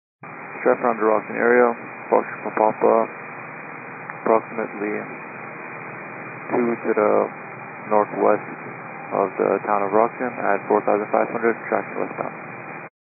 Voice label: 122.925 MHz; scanner radio